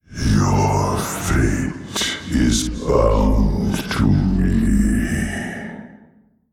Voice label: Male Old God Creature